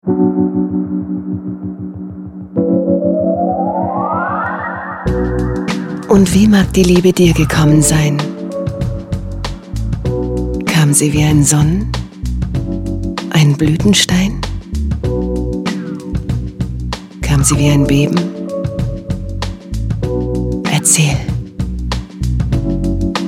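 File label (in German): erzählung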